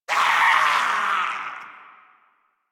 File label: Death, Battle, Barks, en; Warframe; Grineer Wolf Gangster